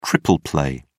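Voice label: "triple play", British English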